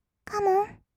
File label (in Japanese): "カモン", kawaii voice; ASMR; 1200 kawaii voice!!; 音ゲー